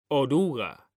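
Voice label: "oruga", Spanish; mekanta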